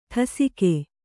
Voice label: "ಠಸಿಕೆ", kannada